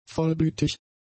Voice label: "vollblütig", German; adjectives